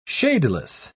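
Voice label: "shadeless", British English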